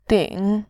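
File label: "ding", Mandarin Chinese, third tone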